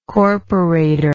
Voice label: "corporator", English